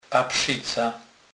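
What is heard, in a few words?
"paprzyca", Polish, Kurpie dialect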